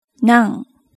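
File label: "nan", Japanese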